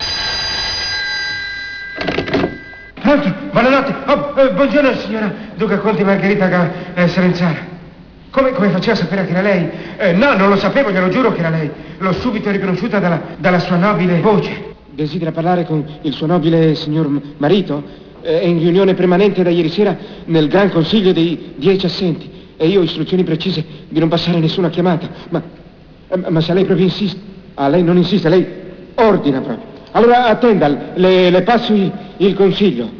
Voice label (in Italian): telefonata; duca conte; umorismo; paolo villaggio